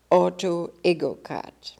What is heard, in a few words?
"Autoegocrat", Beelzebub pronounciations; Fourth Way